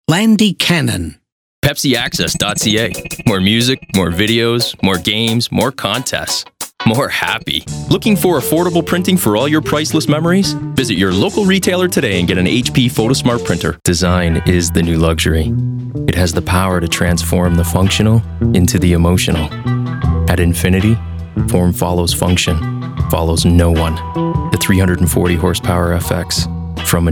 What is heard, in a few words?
commercial male